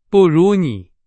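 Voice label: Chinese, example sentence; hsk2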